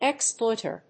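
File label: "exploiter", English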